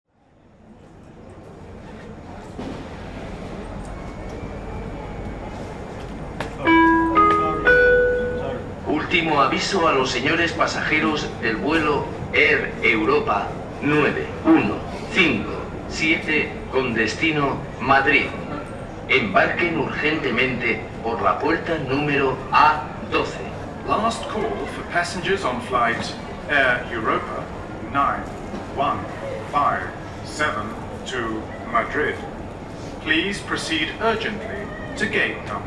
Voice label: ultimo avisto, Flughafen Ansagen; Air Europa

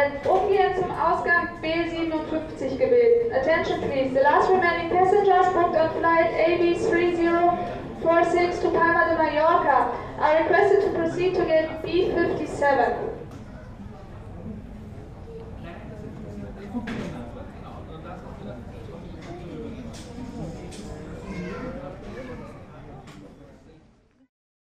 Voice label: die noch fehlenden Passagiere, Flughafen Ansagen

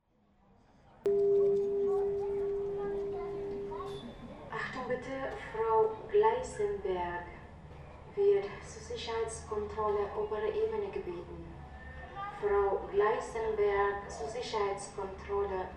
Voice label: zur Sicherheitskontrolle obere Ebene, Flughafen Ansagen

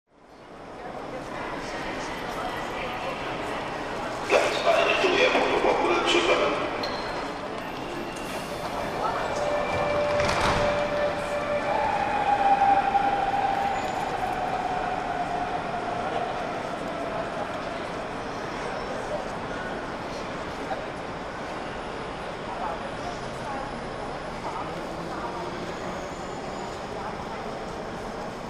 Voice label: S-Bahn Ansage Hamburg HBF

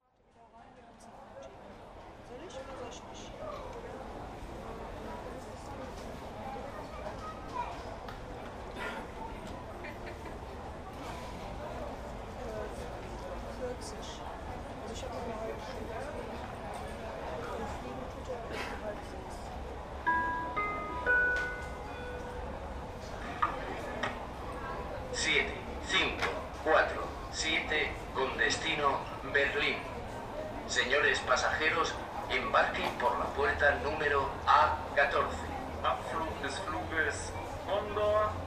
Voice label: nach Berlin, Flughafen Ansagen; Condor

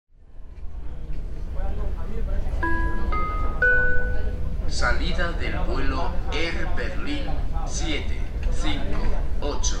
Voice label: Ansage nach Jerez de la Frontera; Air Berlin

